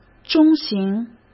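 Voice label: "中型", Mandarin Chinese; hsk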